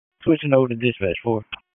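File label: Dispatch 1, P25; wake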